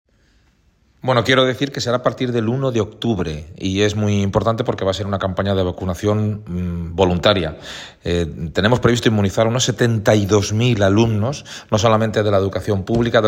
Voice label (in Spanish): voz campana vacunacion